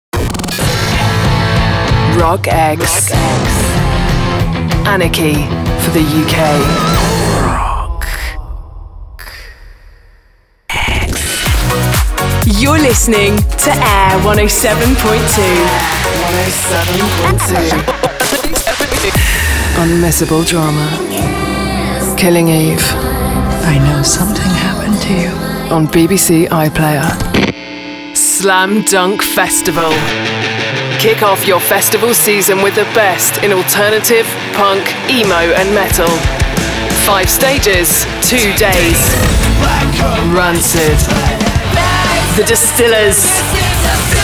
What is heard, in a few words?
Imaging Promo